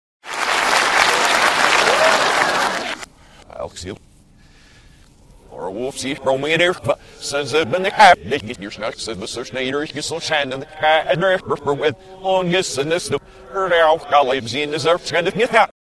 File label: please clap, reverse